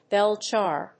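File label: "bell jar", English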